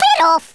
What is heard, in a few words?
"goaway", Brummie; Worms Armageddon